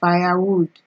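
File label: "fire wood", Nigerian Pidgin, female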